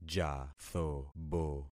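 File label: "jathobo", concatenated syllables; Affixing experiment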